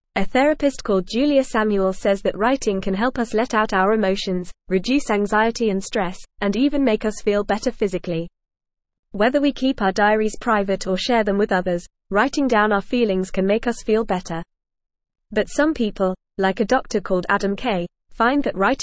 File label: Upper Intermediate FAST Reading, English; English Newsroom; The Therapeutic Benefits of Writing a Diary